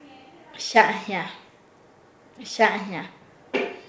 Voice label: "malgastar", Nasa Yuwe; paez

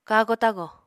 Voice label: "kago-tago", Apatani

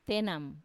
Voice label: "tenam" life, Wamesa

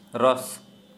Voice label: Santali, scrotum

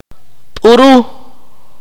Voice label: "donkey", Akateko

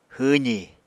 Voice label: "hwwzi", Apatani

